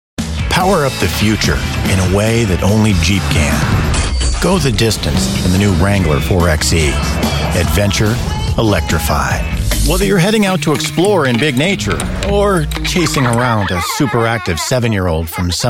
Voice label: Commercials

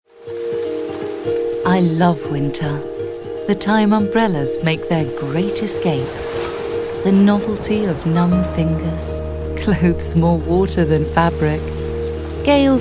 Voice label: Commercial

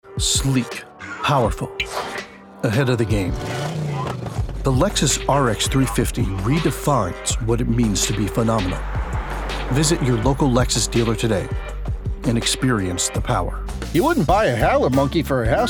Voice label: Commercial

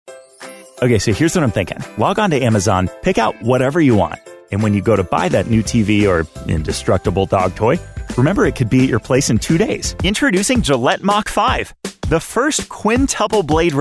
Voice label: commercial

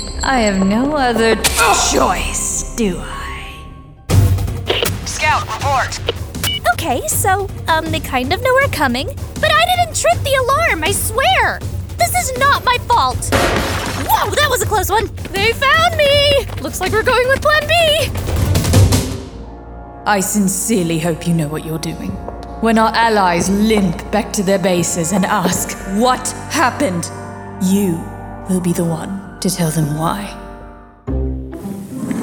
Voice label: animation and video game; Demo Reel

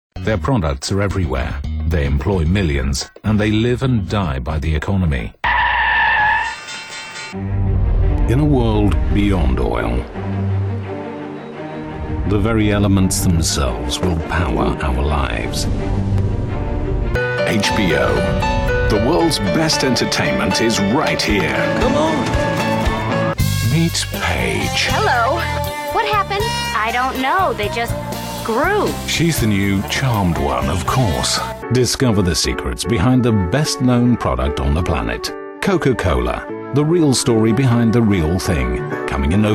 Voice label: promos trailers

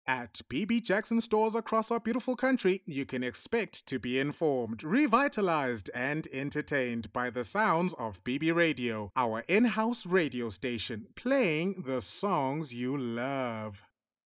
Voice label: IN HOUSE RADIO HARD SELL

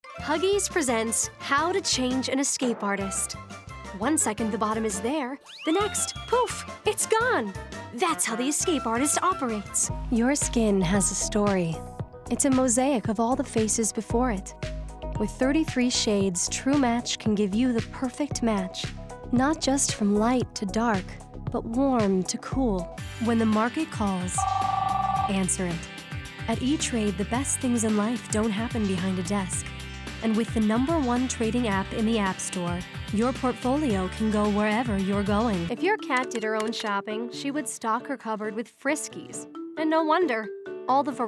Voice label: commercial voice over

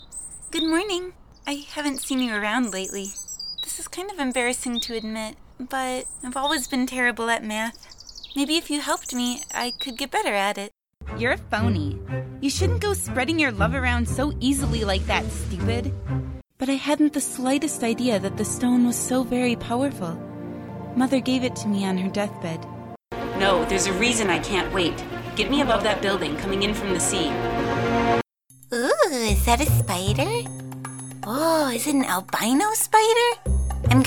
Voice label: Animation

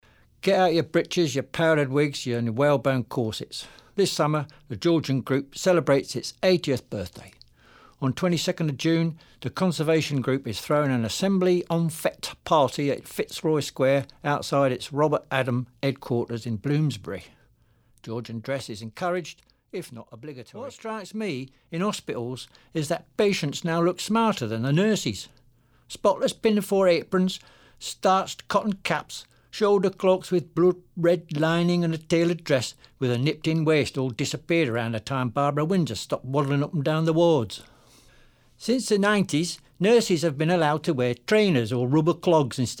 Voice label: Accents

